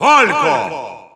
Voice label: Russian Announcer; SSBU